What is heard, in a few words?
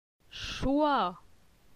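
"Choi", French; phonetique; la syllabe oi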